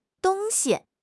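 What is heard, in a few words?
"dong1 xi1", Mandarin Chinese; HSK1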